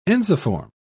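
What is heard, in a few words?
"ensiform", British English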